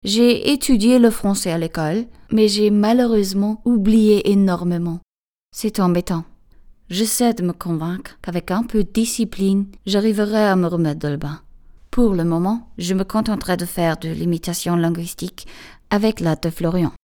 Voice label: Franzoesisch

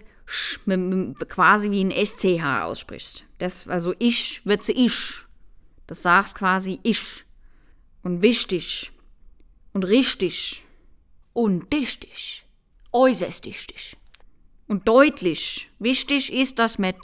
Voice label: Hessisch

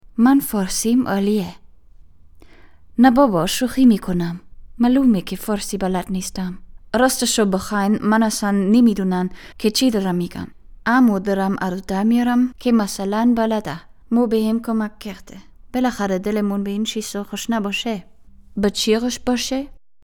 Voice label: Persisch

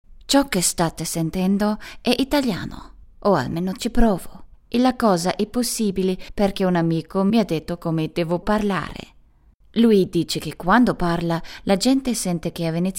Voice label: Italienisch

